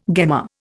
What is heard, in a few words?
"gemma", Indian